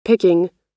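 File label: "picking", American English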